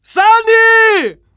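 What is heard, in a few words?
german medic; dod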